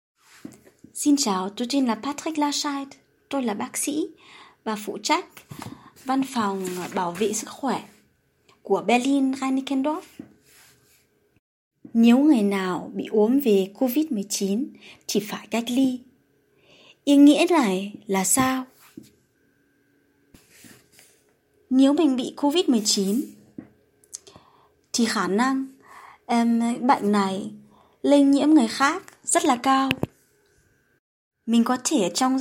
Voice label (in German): vietnamesisch; infektionsschutz; quarantne